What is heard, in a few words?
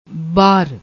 "baar", Punjabi; ਬਾਰ